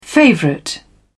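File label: "favourite", British English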